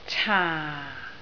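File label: "caa4", Cantonese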